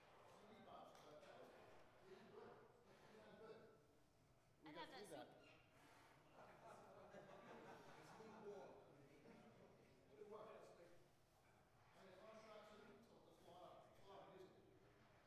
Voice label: Commentary